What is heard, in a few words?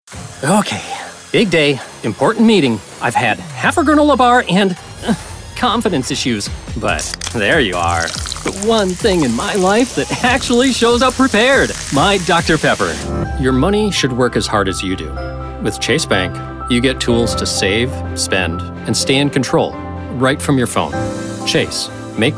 Commercial